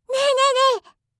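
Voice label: "nee nee nee", Japanese; yobikake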